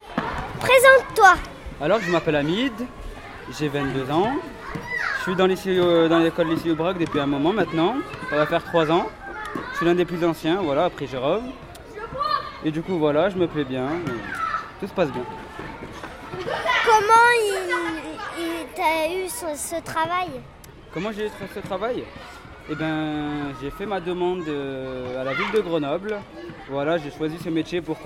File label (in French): animateur